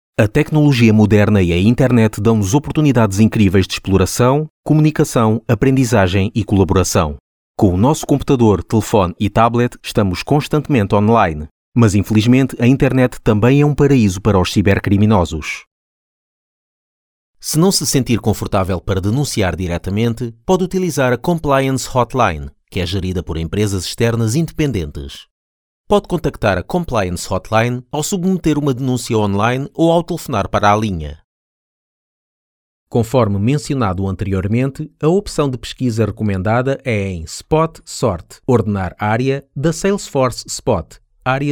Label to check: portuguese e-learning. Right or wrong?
Right